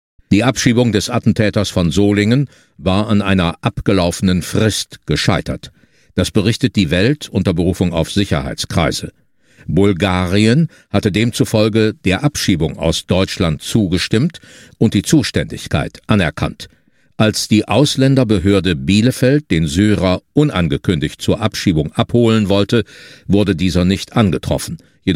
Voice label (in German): Nachrichten; Misslungene Abschiebung